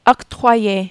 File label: "octroyer", french